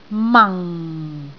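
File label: "mang4", Cantonese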